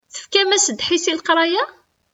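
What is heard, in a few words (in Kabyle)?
Tefkam-as ddḥis i leqṛaya?, Kabyle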